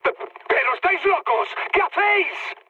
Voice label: player killed ally, mood high, speech

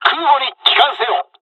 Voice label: flight, dialogs japanese; midway jpn; War Thunder

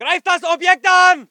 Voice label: voice ger infantry

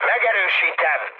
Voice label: player killed enemy, mood high, speech hun

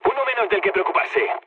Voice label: player killed enemy, mood low, speech

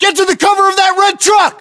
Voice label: order cover truck red, battlechatter us

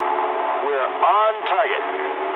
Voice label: eng near waypoint mood low, speech eng

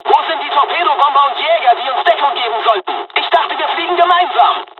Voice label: dialog, dialogs german; War Thunder; midway

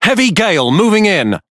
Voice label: Speech Alarms Snowstorm, EN GB; World Of Warships

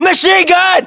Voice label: US threat mg, battlechatter, english

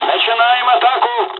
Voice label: dialog, dialogs russian; War Thunder

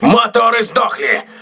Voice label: voice message lost propulsion, russian

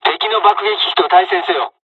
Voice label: bomber attack, dialogs japanese; War Thunder

